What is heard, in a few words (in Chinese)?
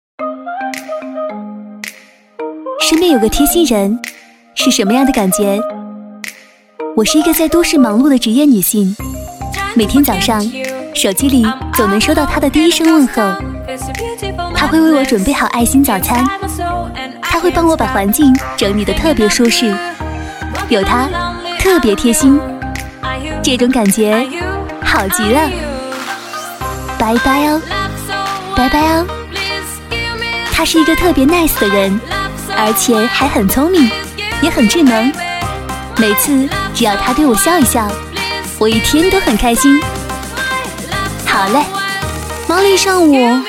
女, 旁白; 贴心物管